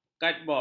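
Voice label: "kadbod", Malay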